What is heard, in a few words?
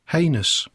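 "heinous", English; poitiers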